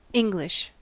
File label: "english", US English